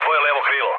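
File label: Serbian left wing mood med; War Thunder; speech srb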